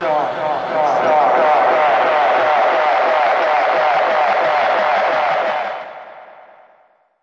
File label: rubber dub vox; Robotic or Stuttery or Spooky